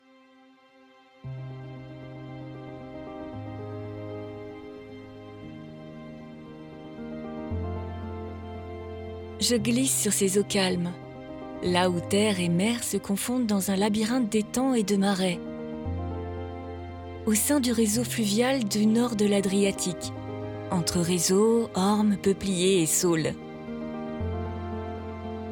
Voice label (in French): Voix off; Corte Cavanella Italie; Lyon